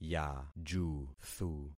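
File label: "yajuthu", concatenated syllables; Affixing experiment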